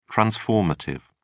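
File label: "transformative", British English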